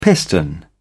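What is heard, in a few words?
"piston", British English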